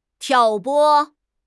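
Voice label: "挑拨", Mandarin Chinese; HSK6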